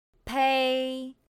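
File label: "pēi", Chinese; pinyin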